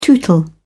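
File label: "tootle", British English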